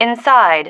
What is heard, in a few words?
"inside", female